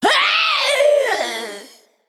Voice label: Female Naga Death